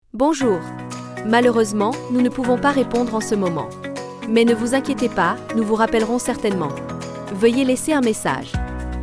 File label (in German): lustig ansage